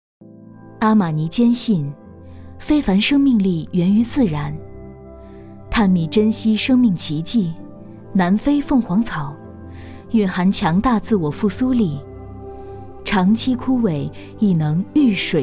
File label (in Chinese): 广告样音